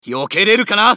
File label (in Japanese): 男; マスケッティア; プリシジョンストライク